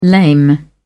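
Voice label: "lame", British English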